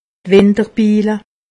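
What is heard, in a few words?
"winderbille", Alsatian; medecine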